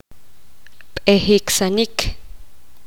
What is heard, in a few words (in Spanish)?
poqomam congelarse